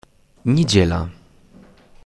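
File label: "Sunday", Polish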